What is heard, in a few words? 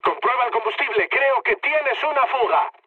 tank damaged, mood med, speech; War Thunder